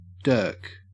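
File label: "dirk", Australian English; pronunciations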